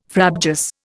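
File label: "frabjous", Indian English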